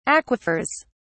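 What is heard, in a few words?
"aquifers", British English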